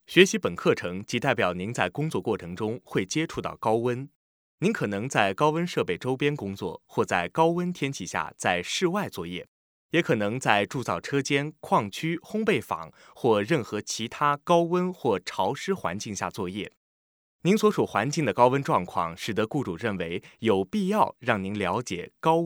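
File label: Mandarin Chinese, male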